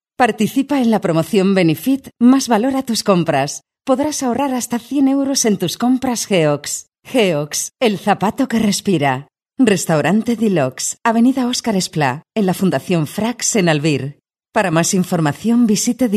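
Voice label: Spanish EU female Commercial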